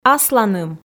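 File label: "асланым", Komi, female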